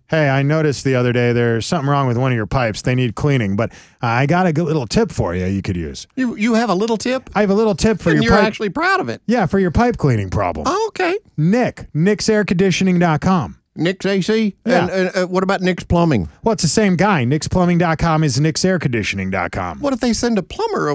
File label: RADIO AD; nicks plumbing radio ads